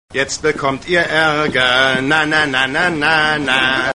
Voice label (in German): Aerger